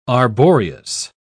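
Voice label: "arboreous", British English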